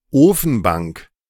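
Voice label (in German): "Ofenbank", German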